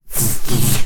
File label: Alien Scientist; little robot sound factory